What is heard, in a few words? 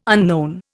"unknown", Indian